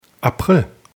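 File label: "april", German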